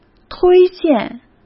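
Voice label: "推荐", Mandarin Chinese; hsk; chinese-flashcards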